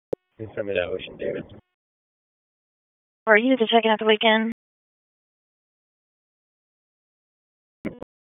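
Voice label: Raleigh Police Southeast District Dispatch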